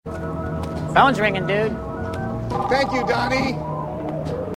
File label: phone dude